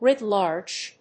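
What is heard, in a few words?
"writ large", English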